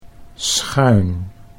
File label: "schuin", Dutch